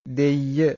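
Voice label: "deyya", Pali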